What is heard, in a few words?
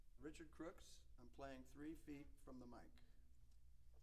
Percussion Imaging Tests, Drum, 3 Feet, Dry Studio: Announcement